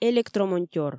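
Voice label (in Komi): "электромонтёр", Komi, female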